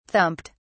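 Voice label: "thumped", British English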